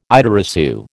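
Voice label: "idée reçue", American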